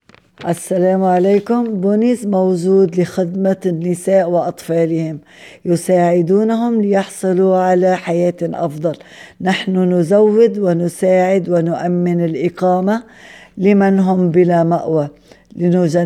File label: Arabic